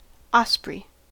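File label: "osprey", American English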